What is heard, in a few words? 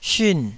"syun4", Taiwanese